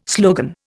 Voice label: "slogan", Indian